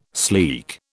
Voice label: "sleek", British